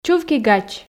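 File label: "чувки-гач", Komi, female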